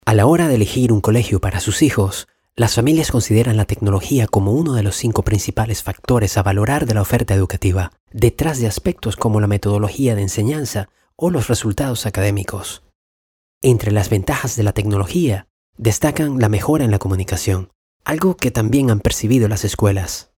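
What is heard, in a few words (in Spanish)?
e-learning, Venezuela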